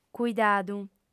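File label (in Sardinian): "cuidadu", female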